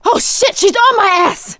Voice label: witch chasing; survivor; producer

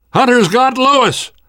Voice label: hunter pounced, survivor voice namvet

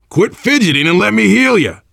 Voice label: heal other, voice, biker; Left 4 Dead 2; survivor

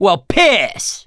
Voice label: reaction negative, survivor voice mechanic

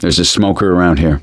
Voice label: heard smoker, survivor voice; gambler

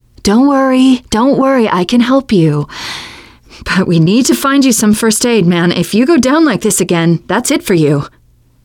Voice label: revive critical friend, teengirl; player; survivor; voice

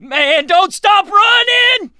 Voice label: yell run, voice, mechanic, survivor

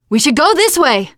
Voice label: follow me, teengirl, voice; player; survivor

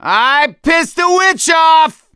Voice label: witch chasing; gambler

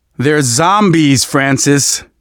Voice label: generic, manager, survivor, voice; Left 4 Dead 2; left4dead2 dlc2

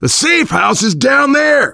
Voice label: directional, voice, biker; Left 4 Dead 2; left4dead2 dlc2; survivor

